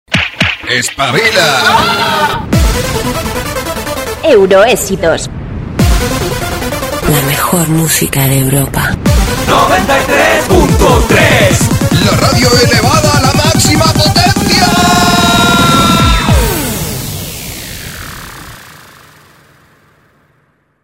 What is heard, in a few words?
jingle; Europa FM